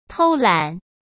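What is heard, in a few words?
"偷懒", Mandarin Chinese; chinese flashcards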